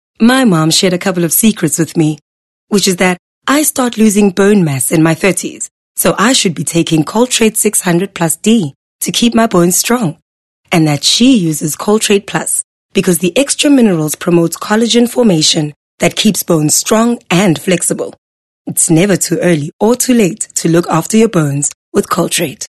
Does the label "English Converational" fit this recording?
Yes